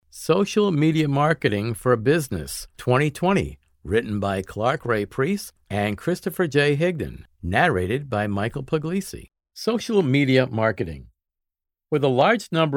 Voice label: narration social media marketing